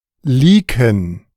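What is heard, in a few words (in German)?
"Lieken", German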